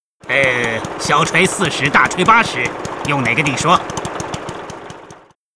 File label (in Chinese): Hero tinker pissed, zh